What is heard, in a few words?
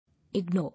"Ignore", English